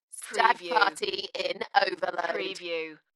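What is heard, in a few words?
UK female, DJ vocals; Dalekness; beanstalk-audio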